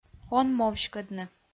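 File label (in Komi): "онмовськӧдны", Komi, female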